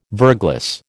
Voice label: "verglas", American